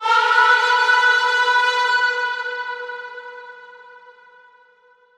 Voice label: High Strike Vocal Call, B